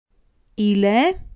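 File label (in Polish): "ile", Polish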